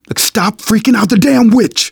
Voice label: witch getting angry, survivor voice; manager; Left 4 Dead 2; left4dead2 dlc2